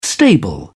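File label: "stable", British English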